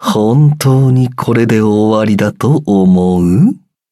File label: defeat ja